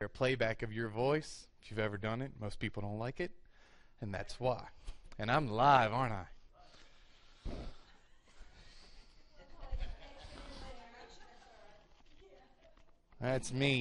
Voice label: English